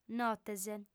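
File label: "notes", female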